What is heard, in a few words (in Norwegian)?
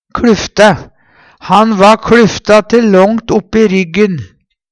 "kLufta", Numedalsmål; Han va kLufta te longt uppi ryggen; Adjektiv